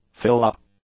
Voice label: "fill-up", English; nouns